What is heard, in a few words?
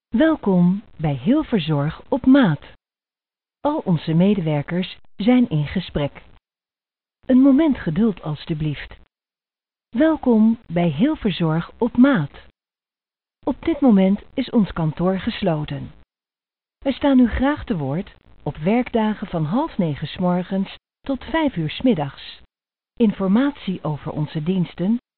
dutch female on hold